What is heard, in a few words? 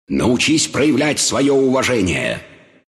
attack, ru; dota2